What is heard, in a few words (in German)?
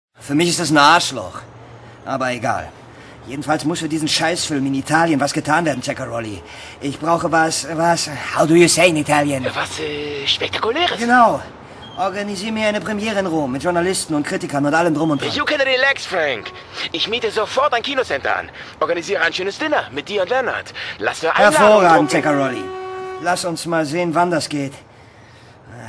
Hörspiel